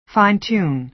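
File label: "fine-tune", American English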